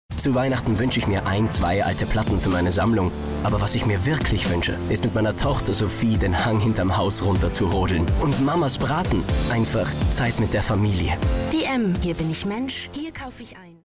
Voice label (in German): Mann